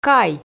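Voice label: "кай", Komi, female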